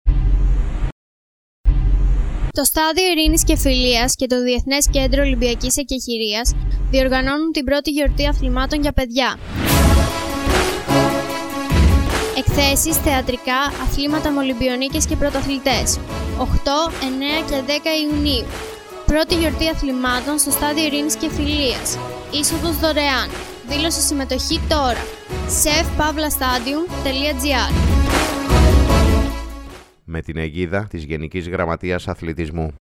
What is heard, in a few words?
RADIO SPOT; GIORTH ATLHMATON